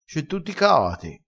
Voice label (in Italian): "caati", crespinese